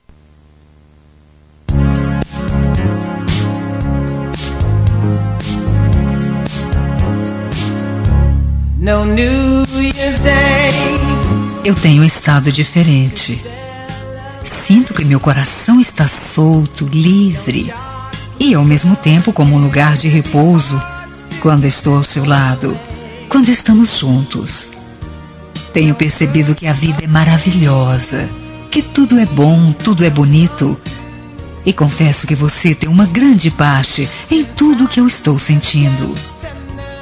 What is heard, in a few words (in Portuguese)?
DECLARACAO DE AMOR FEM; Telemensagens; Telemensagens 2017